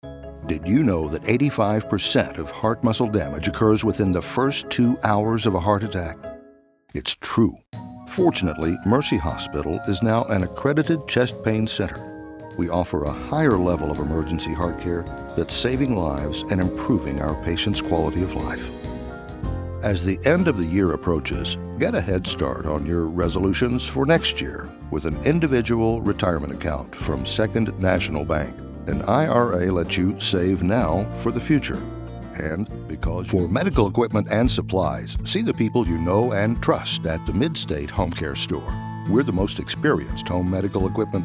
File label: VO Demo